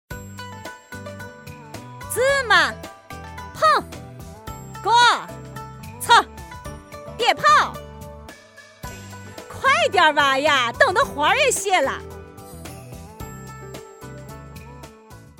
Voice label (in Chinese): 内蒙包头麻将女声